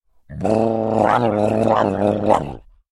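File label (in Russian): zvuki golosa peshchernogo cheloveka, caveman voice sounds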